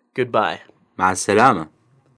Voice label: iraqi, Goodbye (may you be safe.) ma'aa-essalama مع السلامه